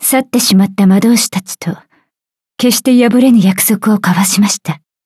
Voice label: upgrade, ja; cookie run